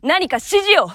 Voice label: japanese Idle; azurlane hoi4; azurlane tj

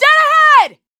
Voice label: american Moving; azurlane hoi4; azurlane sr reforged

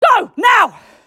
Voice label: english Moving; azurlane hoi4; azurlane sr reforged

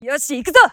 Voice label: japanese Moving; azurlane hoi4; azurlane tj

